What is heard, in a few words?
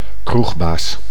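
"kroegbaas", Dutch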